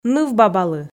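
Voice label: "нывбабалы", Komi, female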